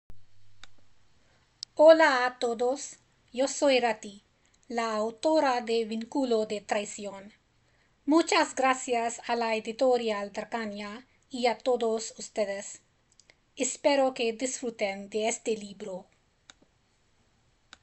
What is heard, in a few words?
message in spanish; I recorded a brief message here